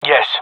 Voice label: affirmation, male; vo